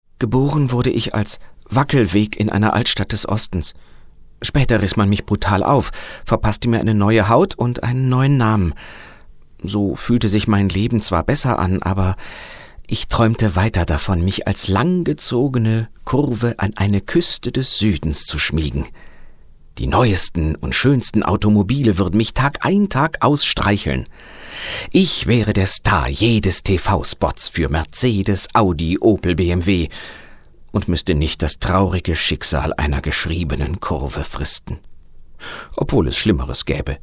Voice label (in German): Schauspiel Sanft